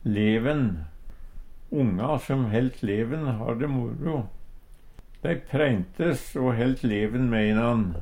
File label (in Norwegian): "leven", Numedalsmål